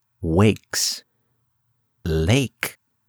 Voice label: Narrator